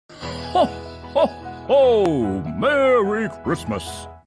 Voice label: Character Santa